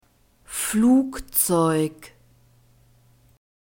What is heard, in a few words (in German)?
"Flugzeug", German